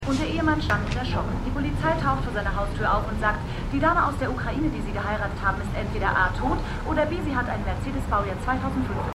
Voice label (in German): Nachrichtensprecherin; The Wire